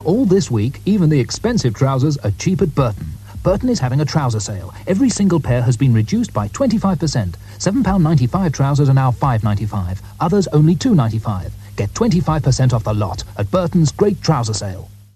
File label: Radio Advert, Trouser Sale; Radio Hallam; Burton